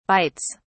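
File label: "bites", British English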